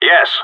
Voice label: affirmative, radio; GSG9